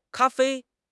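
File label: "ka1 fei1", Chinese; HSK; HSK2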